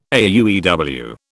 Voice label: "AUEW", British

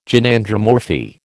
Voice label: "Gynandromorphy", American

